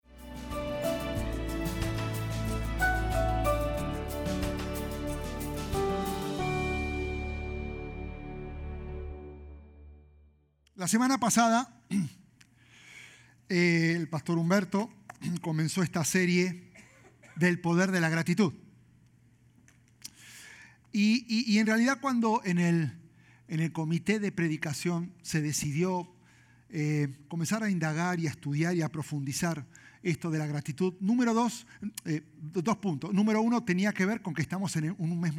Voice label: SPAN SERMON